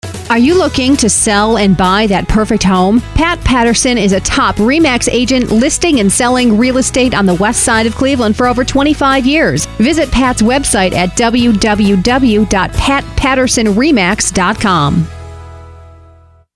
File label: Radio Ad